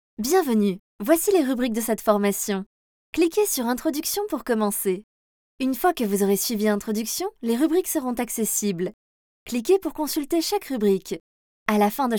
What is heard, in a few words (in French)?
E-learning pour video explicative